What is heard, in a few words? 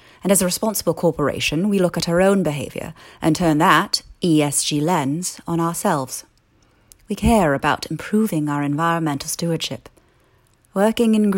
Investment Firm VO